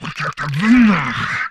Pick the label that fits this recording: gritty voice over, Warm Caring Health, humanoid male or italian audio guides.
humanoid male